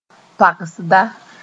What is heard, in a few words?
"toagsda", moore